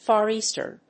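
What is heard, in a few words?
"Far Eastern", English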